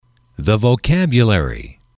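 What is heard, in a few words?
"the vocabulary", English, male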